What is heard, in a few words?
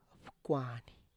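"pkwani", Tlacochahuaya Zapotec; digit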